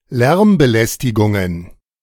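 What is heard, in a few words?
"Lärmbelästigungen", German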